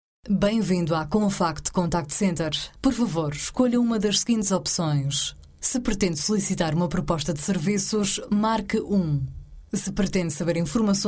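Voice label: Portuguese IVR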